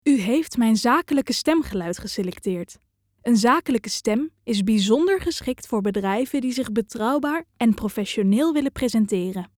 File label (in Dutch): Zakelijk